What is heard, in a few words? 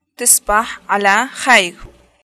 greetings good night, arabic levantine